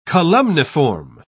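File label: "columniform", British English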